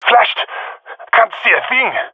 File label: blinded, jungle male; vo; agents; Source Model Packs; Counter Strike Model Pack; CS2; jungle male epic